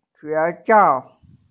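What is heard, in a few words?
"xue2xiao4", Mandarin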